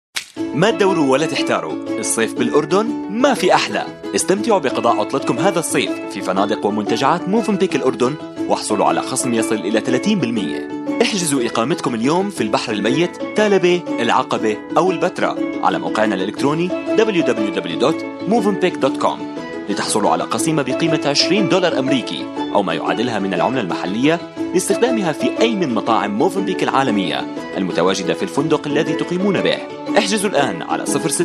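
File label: Arabic Commercial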